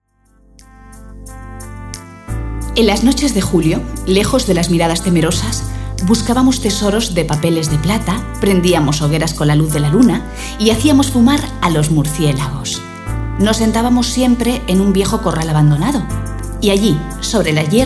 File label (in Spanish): Narrativos